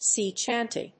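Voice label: "sea chanty", English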